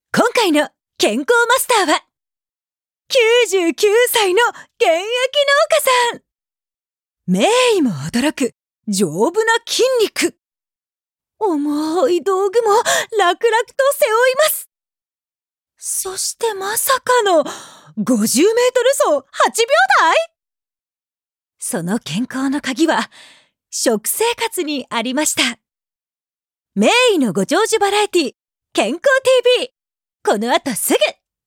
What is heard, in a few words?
narration